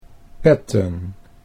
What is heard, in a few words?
"Petten", Dutch